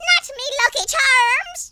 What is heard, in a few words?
"leave me alone", Irish; speech